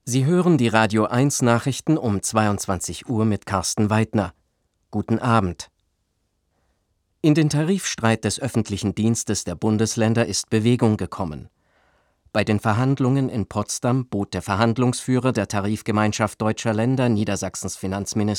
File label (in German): Nachrichtensprecher